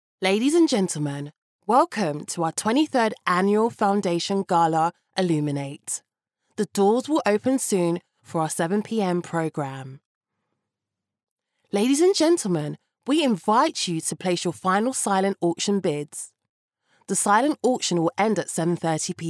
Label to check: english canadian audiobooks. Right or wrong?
Wrong